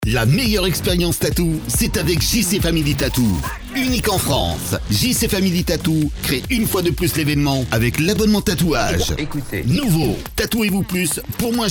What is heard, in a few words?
promo tattoo; JC Family Tattoo